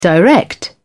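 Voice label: "direct", British English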